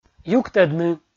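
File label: "юктӧдны", Komi, male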